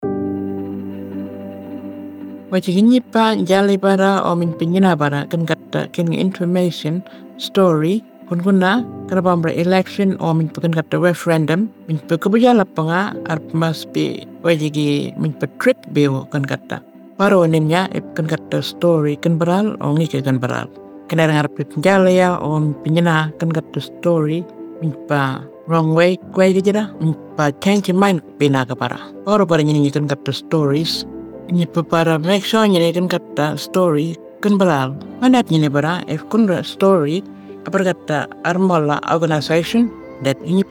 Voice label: Burarra, Radio mix; indigenous; AEC Misinfo